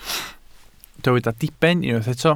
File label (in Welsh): "diben", Welsh